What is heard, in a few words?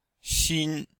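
"xin1", chinese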